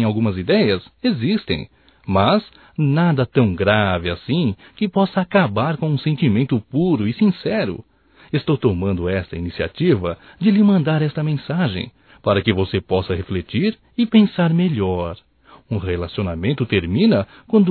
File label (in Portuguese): AINDA TE AMO, Telemensagens, Amor, masc; Telemensagens 2017